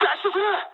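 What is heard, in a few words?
bailout mood high, speech japanese; War Thunder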